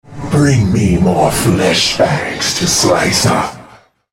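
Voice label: taunt, English; Nocturne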